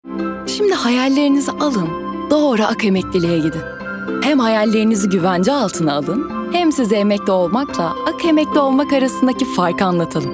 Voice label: sicak samimi

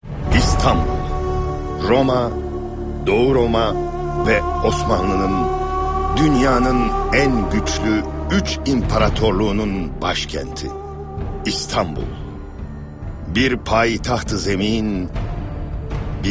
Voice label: belgesel tarihi; sicak

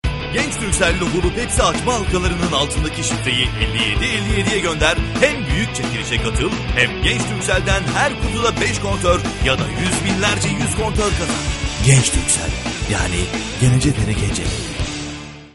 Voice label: kampanya tempolu, otoriter

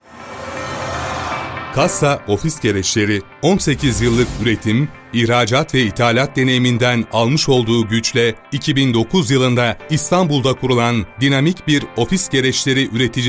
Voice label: enerjik tempolu, seslendirme

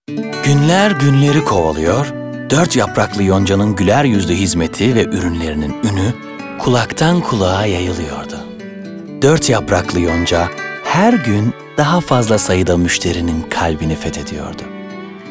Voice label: sicak samimi

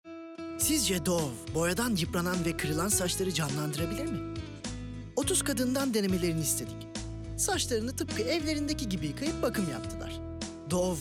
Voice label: neseli esprili, seslendirme

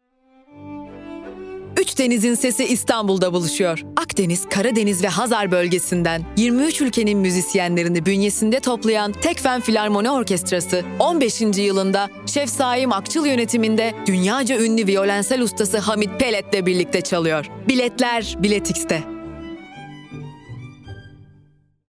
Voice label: kampanya tempolu

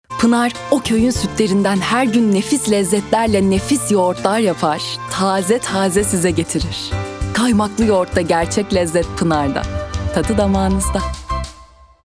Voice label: sicak samimi

